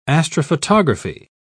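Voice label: "astrophotography", British English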